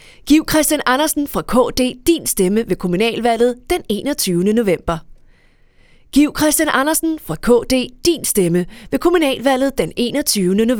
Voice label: Radio; KD; Kommunalvalg; Radio Max